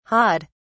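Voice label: "hawed", British English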